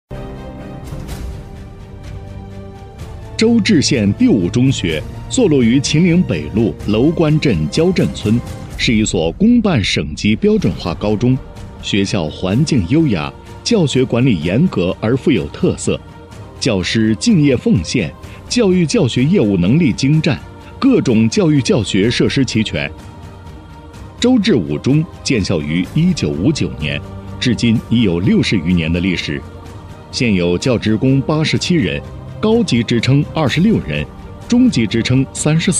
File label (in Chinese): 男 学校宣传片; 男30-柔和 大气浑厚; 周至县第五中学